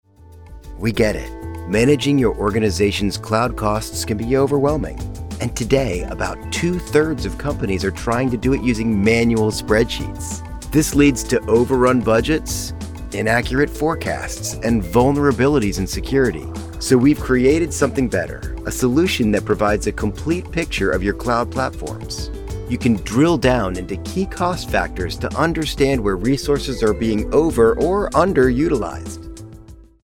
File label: US PHONE